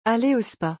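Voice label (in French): "Aller au SPA", French; vocabulaire; le lexique des vacances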